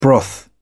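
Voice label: "broth", British English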